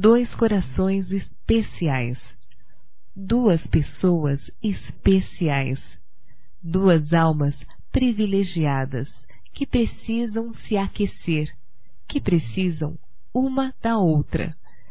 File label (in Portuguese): NOSSO AMOR F; Declaração de amor; fem; Telemensagens 2017